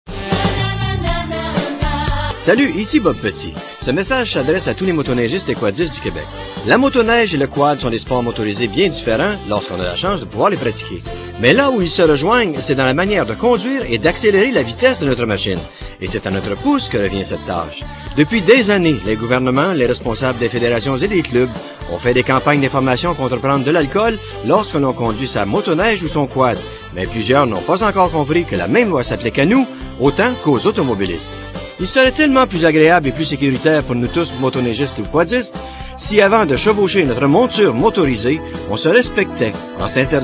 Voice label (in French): annonce radio